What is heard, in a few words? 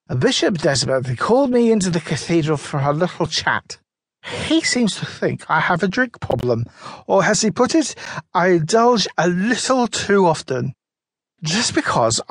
audiobook drunk vicar character narrated; Village Idiot reviews